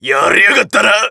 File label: macho damage